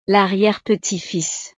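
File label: "l arriere petite fils", French; familia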